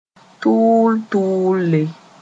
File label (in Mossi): "tʋʋll-tʋʋlle", moore; very quickly